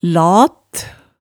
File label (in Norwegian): "lat", hemsedalsmaalet tale